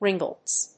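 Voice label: "ringlets", English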